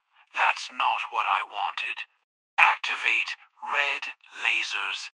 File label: upset; fireball